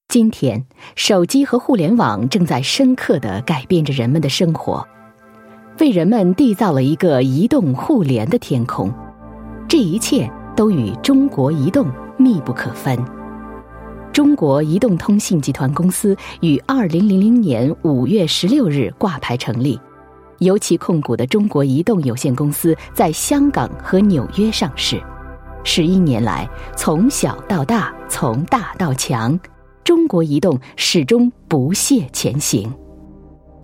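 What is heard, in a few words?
Chinese Mandarin F Corporate, female; voice over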